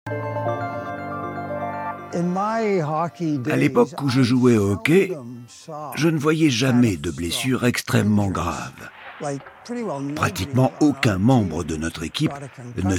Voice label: voix vieille; voix-off; Futurs Champions le prix de la gloire

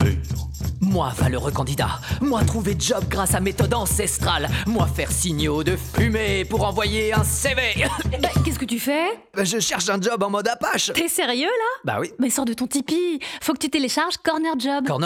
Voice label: FUN; CornerJob; Indiens; MusicCorner

